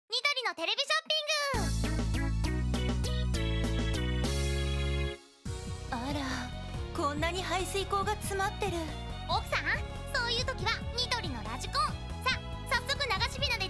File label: Commercial Break